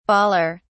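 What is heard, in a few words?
"bawler", English, British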